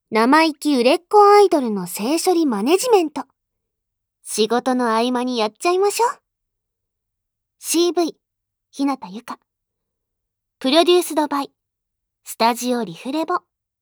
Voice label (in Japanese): タイトルコール, ASMR